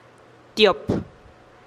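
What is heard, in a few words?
"tiop", dos, Teotitlán del Valle Zapotec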